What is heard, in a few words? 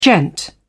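"gent", British English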